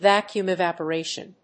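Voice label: "Vacuum evaporation", English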